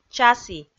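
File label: "часі", Komi, female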